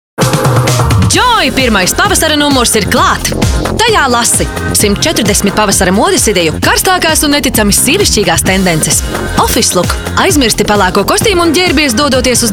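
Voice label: lv, Latvian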